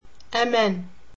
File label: "aman", Berber